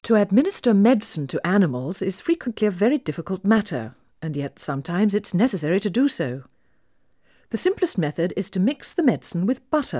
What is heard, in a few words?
Female speech English Mono output noised; 3bit